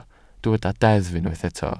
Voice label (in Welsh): "deddf" Welsh